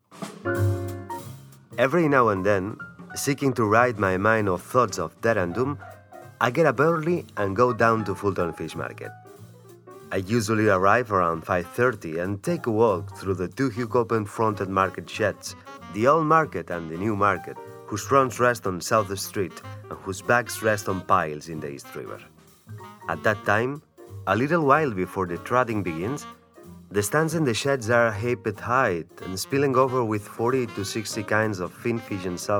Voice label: male non native english story; ideasonora

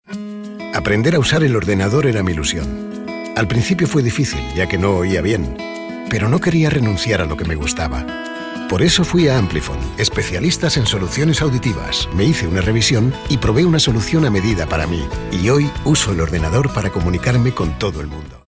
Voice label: male spanish comercial; ideasonora

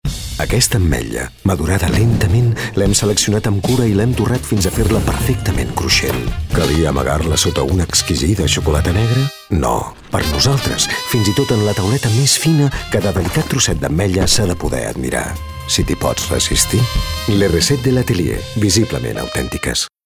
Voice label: male catalan chocolate comercial

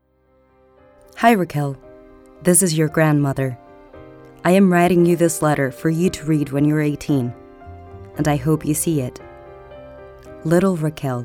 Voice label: female spanish non native english comercial; ideasonora

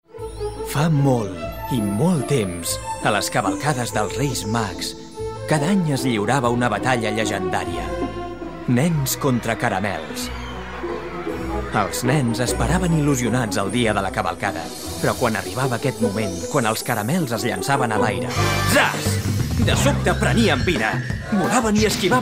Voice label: male catalan sweet comercial; ideasonora

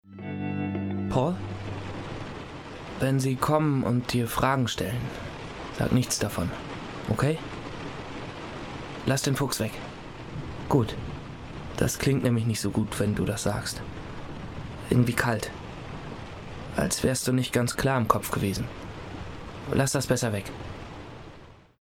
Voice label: male german commercial; ideasonora

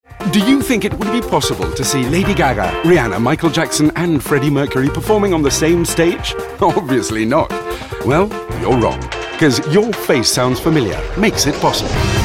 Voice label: male british english event comercial; ideasonora

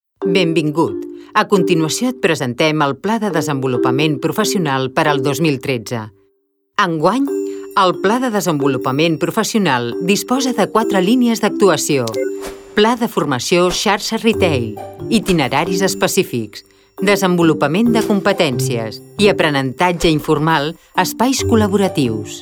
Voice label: female catalan bank comercial; ideasonora

